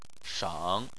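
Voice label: "sheng" tone 3, Chinese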